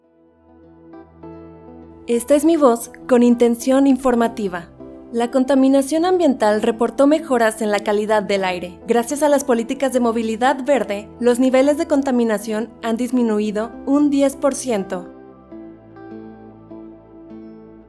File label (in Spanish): informativa Musicalizado, locutora